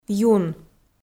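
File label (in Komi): "юн" Komi, female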